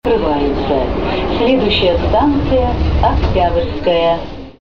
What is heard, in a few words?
female